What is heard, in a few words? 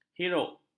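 "hiruk", Malay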